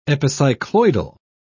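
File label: "epicycloidal", British English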